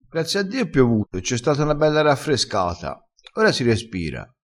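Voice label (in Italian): "raffrescata", vocabolario dialettale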